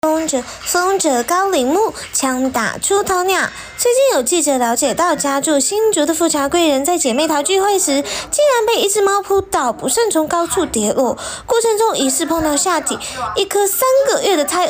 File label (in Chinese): 台湾腔